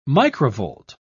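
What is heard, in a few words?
"microvolt", British English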